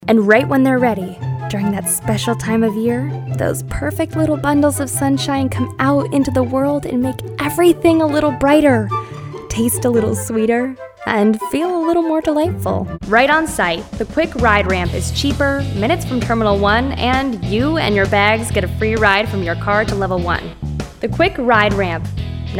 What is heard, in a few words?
Female Commercial